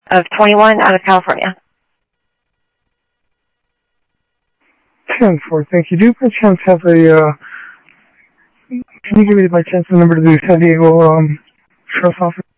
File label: Info Sheriff Common, scanner audio trunk; MCSO; ThompsonPeakScot